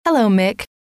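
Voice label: "hello", female; trainer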